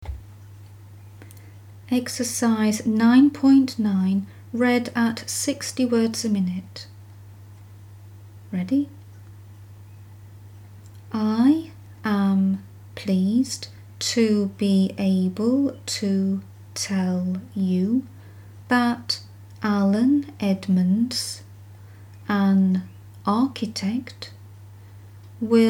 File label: 60wpm; Teeline